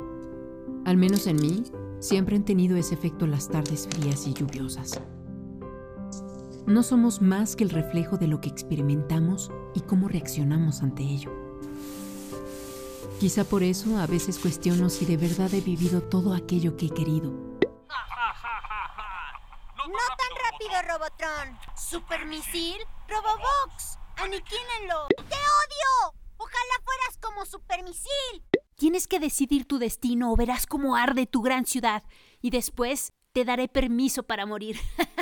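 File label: Latin American Spanish characters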